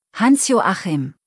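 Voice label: "Hans-Joachim", German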